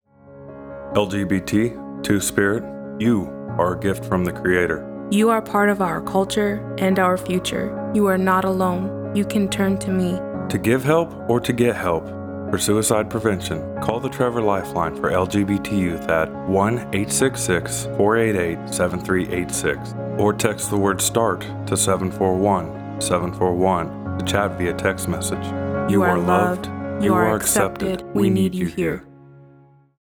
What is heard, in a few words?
LGBTQ2S Radio PSA